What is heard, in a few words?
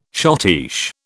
"schottische", British